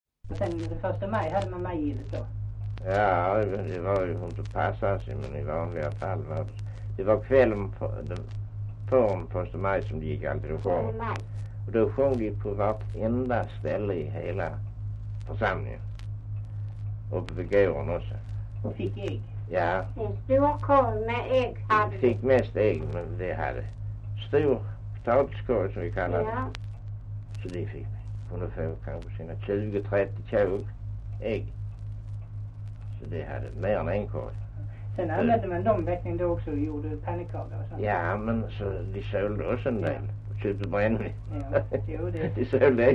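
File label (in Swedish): Östra Ingelstad Skåne, dialekter; Folkminnen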